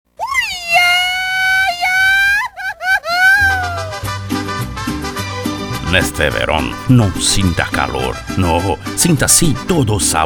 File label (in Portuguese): Spot